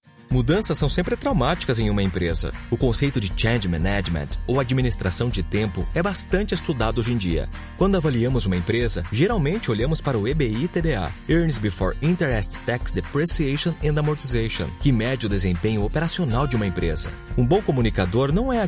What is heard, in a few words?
portuguese brazilian e-learning; Tenho 40 anos. Sou um dublador brasileiro com sotaque natural